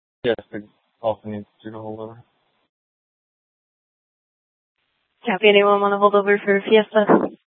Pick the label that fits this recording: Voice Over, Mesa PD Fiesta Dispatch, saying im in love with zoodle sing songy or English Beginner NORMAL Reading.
Mesa PD Fiesta Dispatch